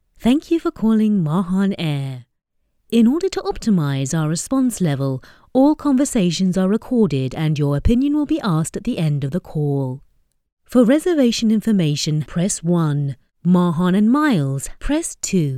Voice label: IVR